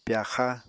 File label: "pyaxa", apatani; Household Items